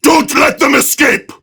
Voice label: Attack Prime Grineer Male; Tau; Battle Barks